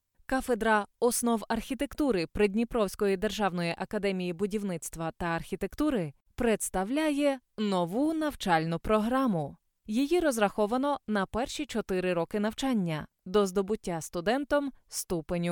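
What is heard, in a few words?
Ukrainian, female, narration; voice-over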